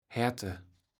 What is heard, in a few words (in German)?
"Herte", Platt